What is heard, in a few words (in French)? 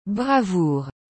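"bravoure", French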